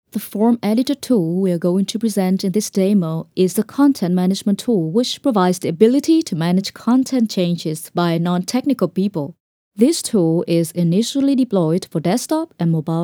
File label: conversational narration